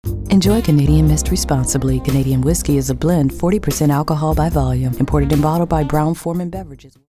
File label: romantic